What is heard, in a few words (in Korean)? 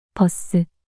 "버스" 단어 여자